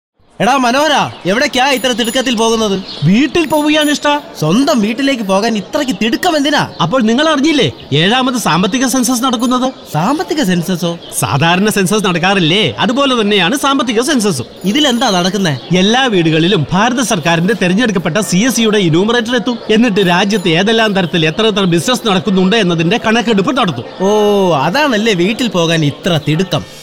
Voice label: Malayalam Radio Spot; economic census; seventh economic census